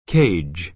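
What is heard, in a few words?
"cage", American English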